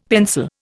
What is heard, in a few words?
"pencil", Indian; Dictionary